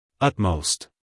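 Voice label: "utmost", American English male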